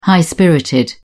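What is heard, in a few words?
"high-spirited", English, British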